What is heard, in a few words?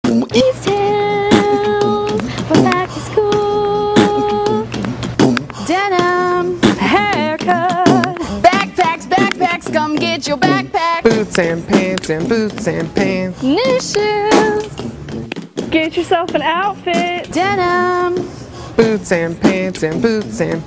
mall comercial